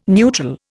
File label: "neutral", Indian